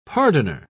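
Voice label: "pardoner", British English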